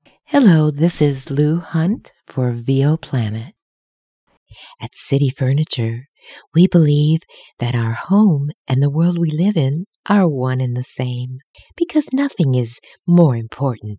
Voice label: Commercials